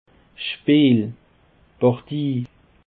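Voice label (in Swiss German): "speel", Alsatian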